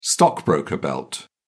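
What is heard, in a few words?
"stockbroker belt", English, British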